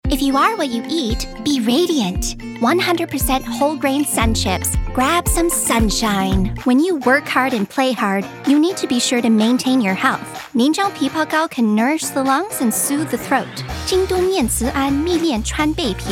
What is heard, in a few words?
Commercial VO Demo